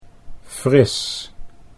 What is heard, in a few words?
"fris", Dutch